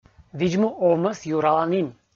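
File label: "видз-му овмӧс юраланін", Komi, female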